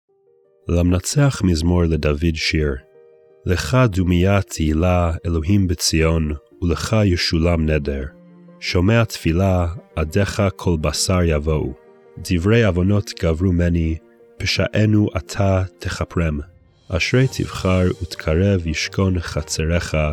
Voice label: poetry and prayer psalm hebrew